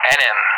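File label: "nm", English speech; DCS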